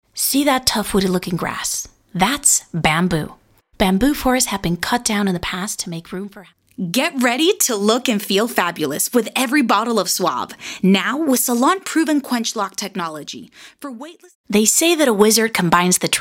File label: english; banco de voces